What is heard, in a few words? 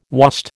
"wast", American